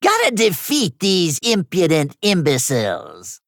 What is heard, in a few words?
Male Gnome Bark